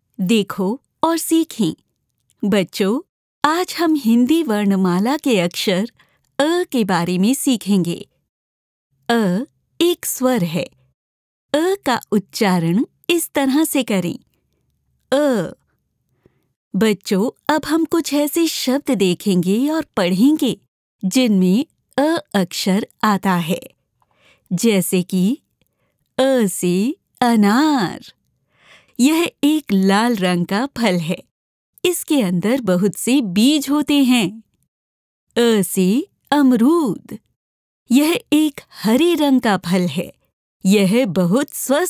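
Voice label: Hindi, eLearning; GoLocalise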